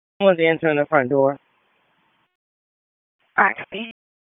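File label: Fire Department HQ Dispatch; RFD HQ DISP; wake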